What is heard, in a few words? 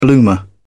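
"bloomer", British English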